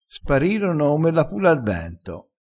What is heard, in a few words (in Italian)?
"pula", crespinese, vocabolario dialettale